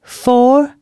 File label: announcements female